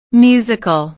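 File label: "musical", English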